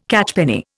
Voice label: "catchpenny", Indian

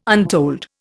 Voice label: "untold", Indian

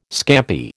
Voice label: "scampi", American

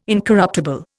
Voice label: "incorruptible", Indian

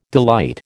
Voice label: "delight", American

